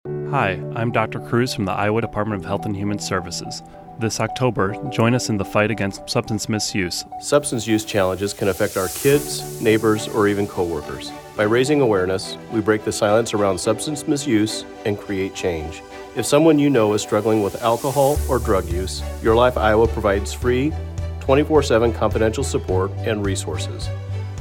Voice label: :30 Radio Spot Substance Misuse (Adult); This radio spot was created for October 2023 for raising awareness of substance misuse.; Your Life Iowa; IA Health and Human Services

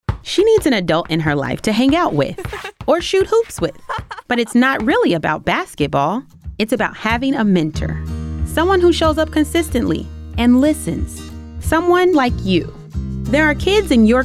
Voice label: Female Over 30; Mentor Basketball

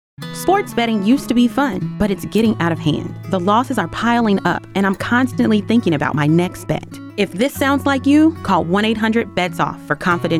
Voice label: female Over 40; 15-sec

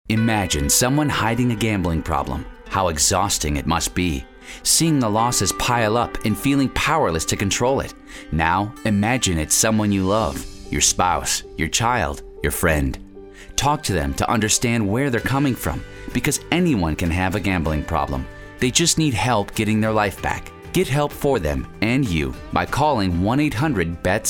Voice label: metro male; Metro Male Spot; IA Dept of Health

